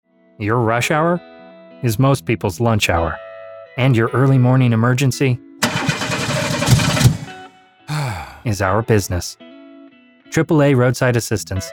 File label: Commercial; Virgin